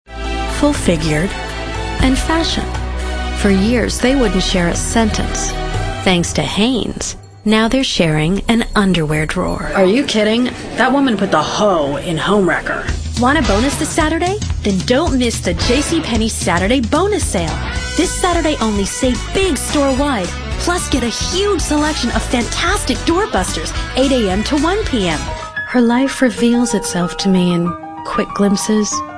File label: female, late 20s late 30s clear